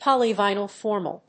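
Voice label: "polyvinyl formal", English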